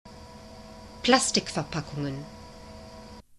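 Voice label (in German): "Plastikverpackungen", German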